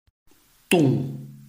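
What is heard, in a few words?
"dong", Chinese, tone 4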